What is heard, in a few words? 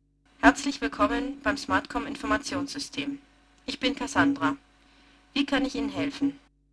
Sine slow; SmartKom; Prototypen Stimme